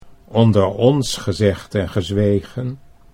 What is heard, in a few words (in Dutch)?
"onder ons gezegd en", Dutch